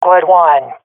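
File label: sees enemy killed, swat female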